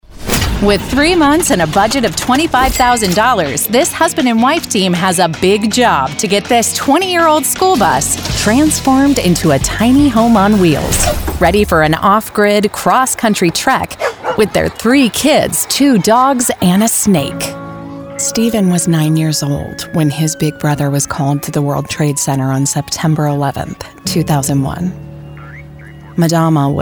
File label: english american documentaries